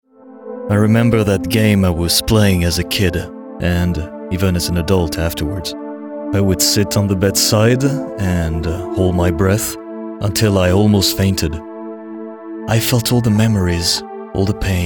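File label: Character Natural, English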